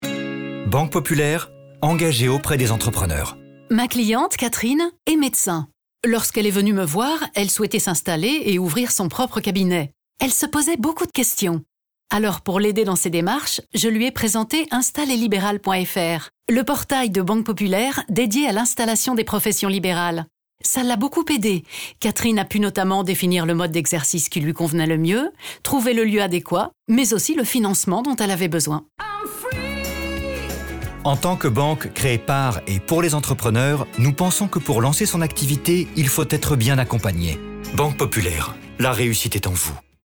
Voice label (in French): Radio; BANque Populaire; ProfLib